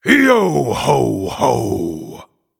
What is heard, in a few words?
Male Night Elf Emote Mirror Greetings